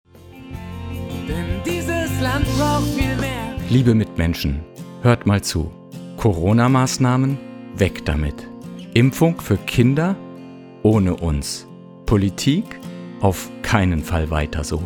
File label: Spot